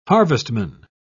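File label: "harvestman", British English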